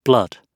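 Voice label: "blood", British English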